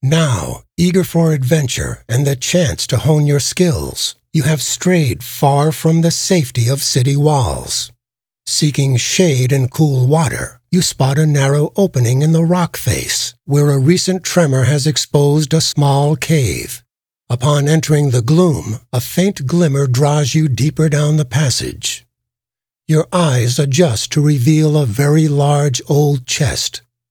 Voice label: narrator; Fate The Cursed King